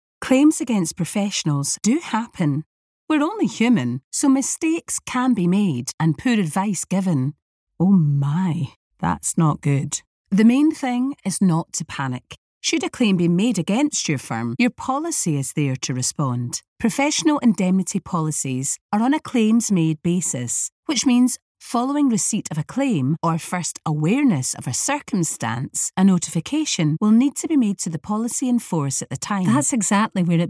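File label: British English, Corporate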